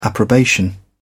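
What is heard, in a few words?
"approbation", British English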